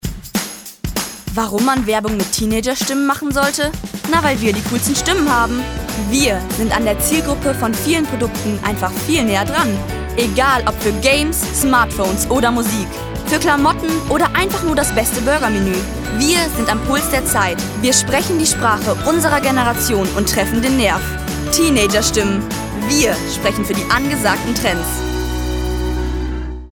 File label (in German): Werbung